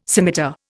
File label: "scimitar", Indian